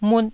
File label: "mut1", Taiwanese